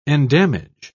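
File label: "endamage", British English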